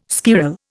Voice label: "squirrel", Indian